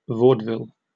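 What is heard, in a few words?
"vaudeville", British English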